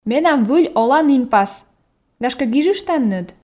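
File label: Komi, female; менам выль оланінпас гашкӧ гижыштанныд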